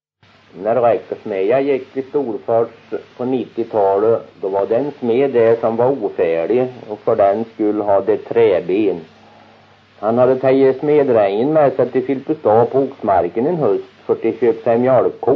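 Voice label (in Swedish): Kroppa Värmland, svenska sagor dialekter; Folkminnen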